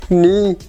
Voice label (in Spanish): "ocho", Ixcateco; Números; INALI